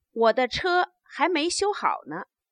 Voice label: stative, Chinese